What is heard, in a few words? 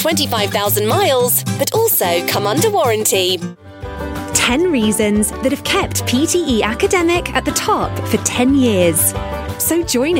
happy narration